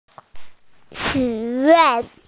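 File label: "wish", Mandarin Chinese, female; xu3yuan4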